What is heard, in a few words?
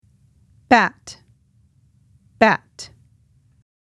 bat english; insects-animals